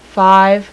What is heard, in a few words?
5 female; numbers